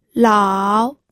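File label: "lao", third tone, pinyin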